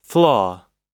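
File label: "flaw", English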